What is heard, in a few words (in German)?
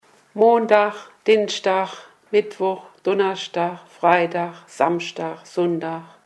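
wochentage, O-Ton Hunsrück; Rheinböllen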